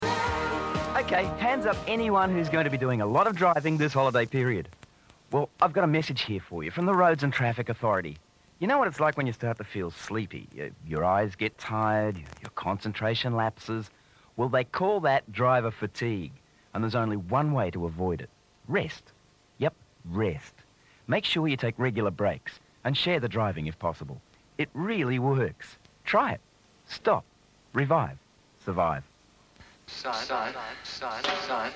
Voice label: Community Service Announcements; highlights and short grabs